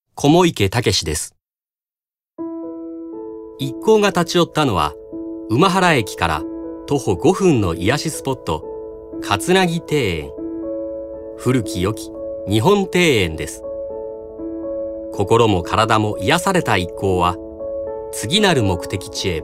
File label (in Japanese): ノーマル